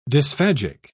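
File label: "dysphagic", British English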